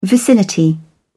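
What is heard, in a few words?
"vicinity", British English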